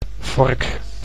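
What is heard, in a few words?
"vork", Dutch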